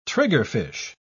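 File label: "triggerfish", British English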